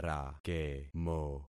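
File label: "rakemo", concatenated syllables; Affixing experiment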